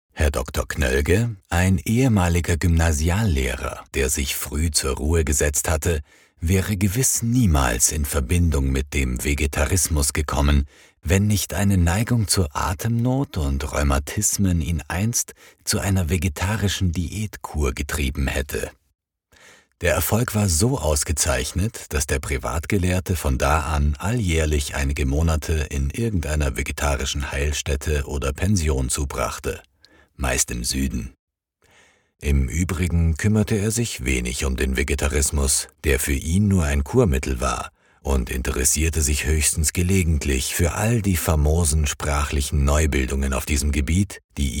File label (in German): Hoerbuch; Dr Knoelges Ende; Hesse